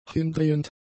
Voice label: "hindrehend", German; adjectives